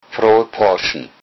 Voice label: easter, low saxon